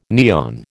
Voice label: "neon", American